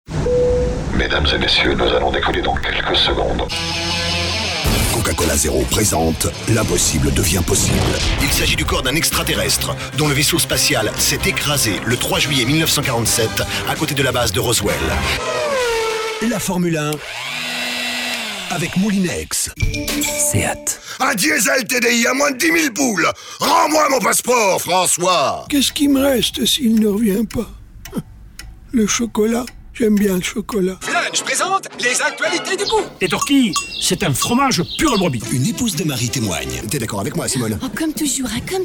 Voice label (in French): enregistrement voix off, marketing direct